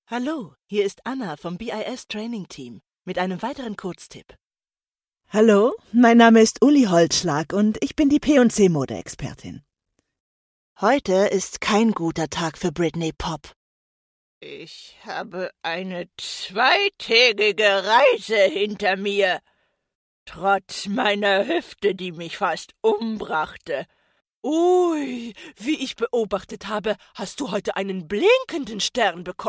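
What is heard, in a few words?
German, female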